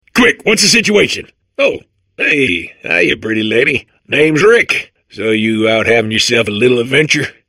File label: babble; portal2